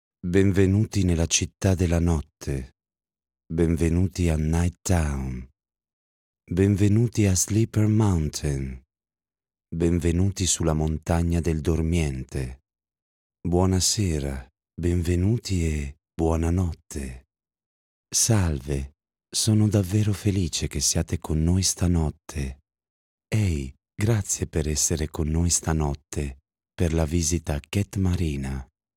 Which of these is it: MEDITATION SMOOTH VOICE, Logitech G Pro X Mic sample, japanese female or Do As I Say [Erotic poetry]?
MEDITATION SMOOTH VOICE